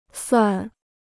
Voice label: "算", Chinese